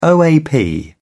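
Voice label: "oap", English, British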